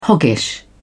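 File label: "hoggish", British English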